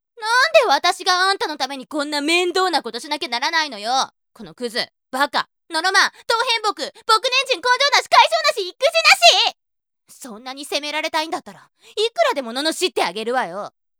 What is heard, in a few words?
woman